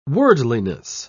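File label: "worldliness", British English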